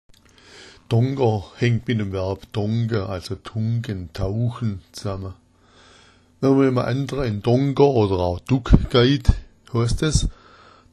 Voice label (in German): donker, schwaebisch